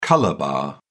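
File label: "colour bar", British English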